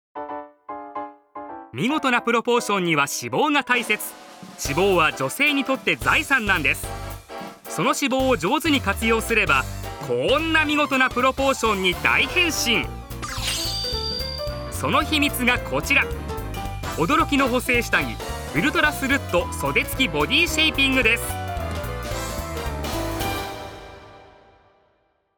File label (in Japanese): voice sample 通販